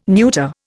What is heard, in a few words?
"neuter", Indian